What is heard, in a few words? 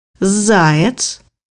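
"hare", Russian; bukvar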